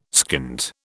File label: "skint", British